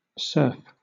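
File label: "surf", British English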